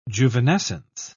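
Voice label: "juvenescence", British English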